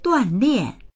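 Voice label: "duan4lian4", Mandarin Chinese; 锻炼; 鍛える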